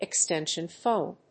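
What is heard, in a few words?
"extension phone", English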